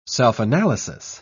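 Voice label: "self-analysis", British English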